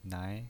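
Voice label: "nai", pinyin voice, Mandarin Chinese, second tone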